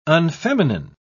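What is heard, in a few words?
"unfeminine", English, uk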